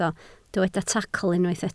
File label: "tacl", Welsh